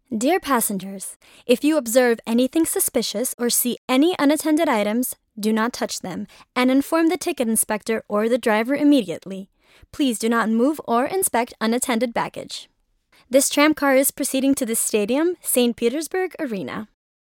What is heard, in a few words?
woman american